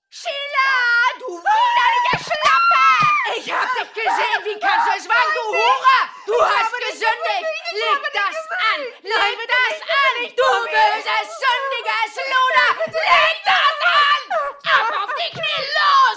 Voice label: Mutter; Bloodbath at house of death

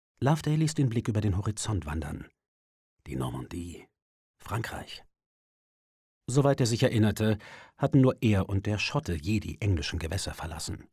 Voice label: Hoerbuch; ESSEX DOGS

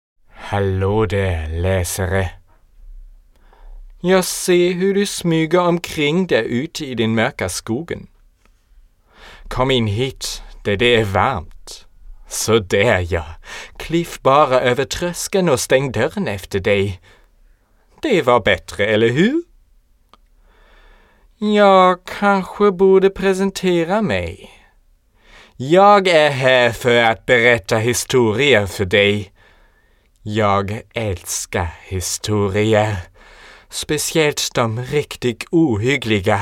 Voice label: Schwedische Gruselgeschichte

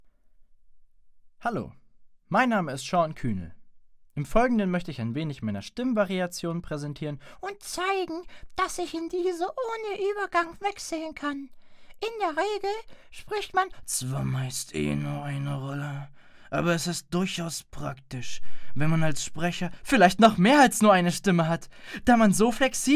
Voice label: Stimmvariationen

